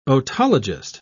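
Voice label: "otologist", British English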